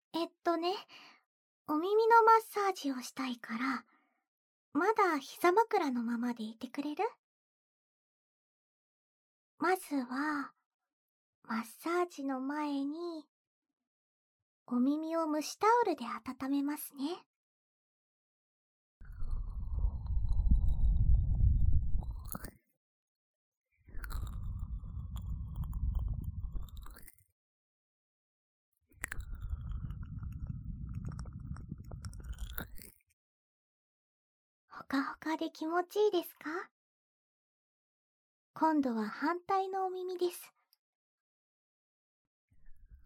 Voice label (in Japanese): ぺたぺた気持ち良いオイルマッサージですよ, ASMR; KU100; 母性溢れる甘々囁きでじっくり癒される【耳かき・マッサージ・抱きしめ・睡眠導入】